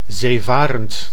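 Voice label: "zeevarend", Dutch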